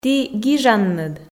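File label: "ті гижанныд", Komi, female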